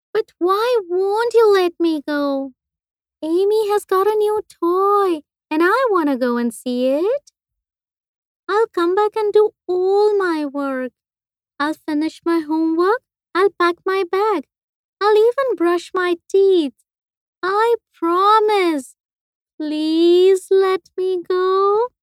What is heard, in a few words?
english international